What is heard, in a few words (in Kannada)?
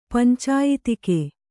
"ಪಂಚಾಯತಿಕೆ", kannada